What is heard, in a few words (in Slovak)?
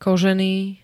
"kožený", slovenské